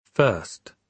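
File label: "first", British English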